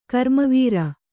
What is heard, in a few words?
"ಘರ್ಮವಾರಿ", Kannada